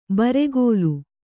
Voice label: "ಬುರುಗಲು", Kannada

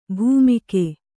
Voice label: "ಭೈಮೂಗು", Kannada

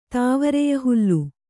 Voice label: "ತಾವರೆಯಹುಲ್ಲು", Kannada; tāvareya hullu; noun; a kind of grass.

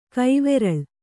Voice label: "ಕೈವುರುಳ್", Kannada

